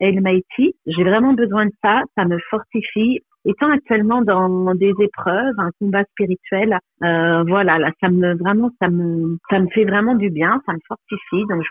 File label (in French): Témoignage sur Radio Gospel